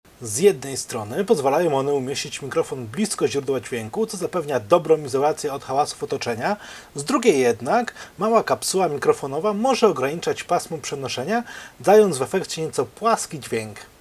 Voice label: Saramonic; mikrofonu; Saramonic-Norm